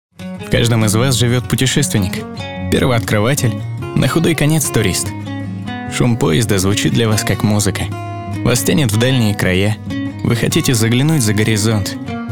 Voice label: Rus